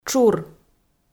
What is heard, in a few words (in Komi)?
"тшур", Komi